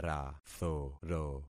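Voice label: "rathoro", concatenated syllables; Affixing experiment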